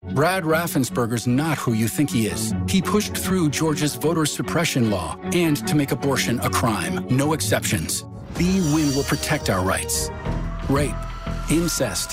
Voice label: Voiceover, Narration, Men; chicago

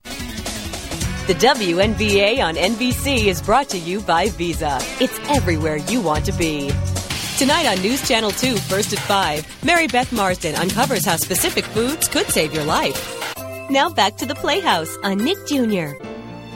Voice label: Voiceover Animation; New York

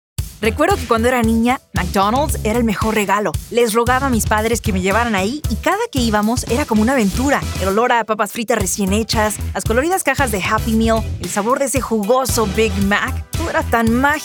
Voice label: voiceover, commercial, women; New York

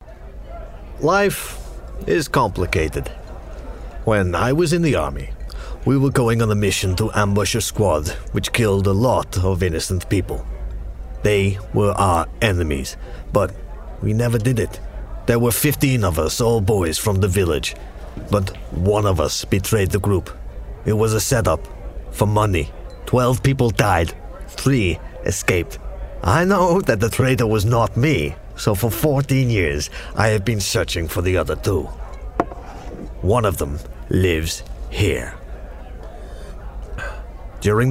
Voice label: Voiceover Animation; New York

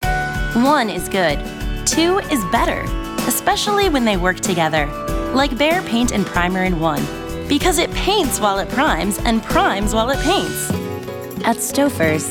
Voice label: Voiceover Commercial Women; Chicago

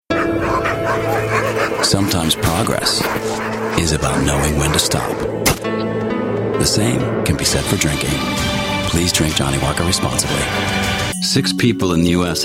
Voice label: Voiceover, Commercial, Men; New York; Commercial Demo

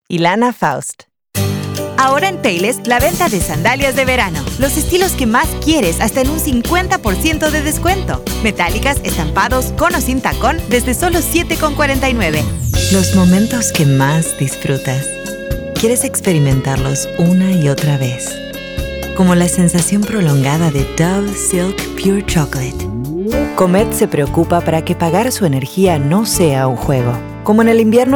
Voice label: Voiceover Foreign Language Spanish; Chicago

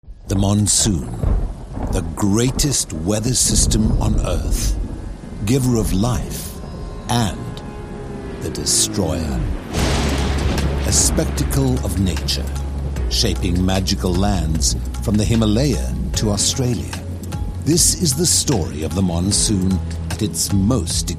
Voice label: voiceover audio books; new york

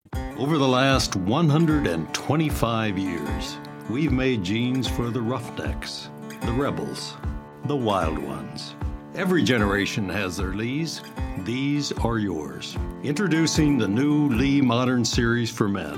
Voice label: Voiceover, Commercial, Men; new york

